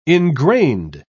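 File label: "engrained", British English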